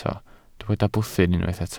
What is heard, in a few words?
"bwthyn", Welsh